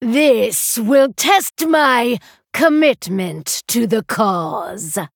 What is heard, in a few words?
Female Night Elf Bark; Lady Anacondra